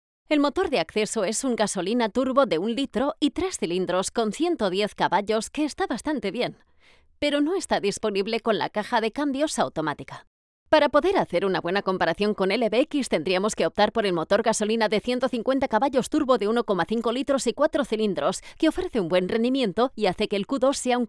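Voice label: spanish automotive, female; professional Spanish and Catalan voice actor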